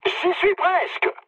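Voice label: near waypoint, mood high, French; War Thunder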